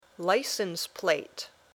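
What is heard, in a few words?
"license plate", English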